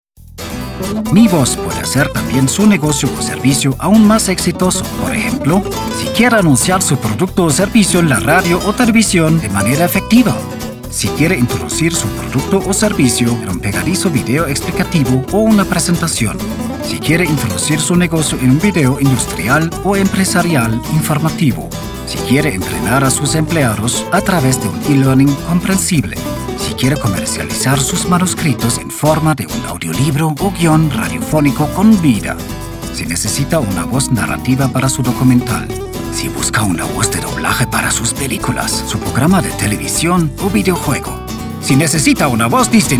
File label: Spanish